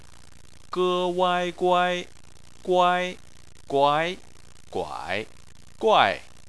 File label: "guai", chinese